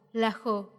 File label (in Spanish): piedra, Mazateco; INAH; INALI